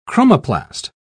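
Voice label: "chromoplast", British English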